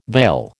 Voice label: "vail", American